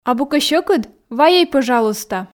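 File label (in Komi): абу кӧ сьӧкыд вайӧй пӧжалуйста, Komi, female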